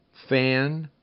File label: "fan", Minimal Pairs; Rhymes an